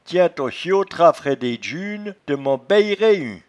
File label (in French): "tiaindtonchiotrat", patois jura